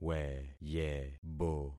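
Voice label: "weyebo", concatenated syllables; Affixing experiment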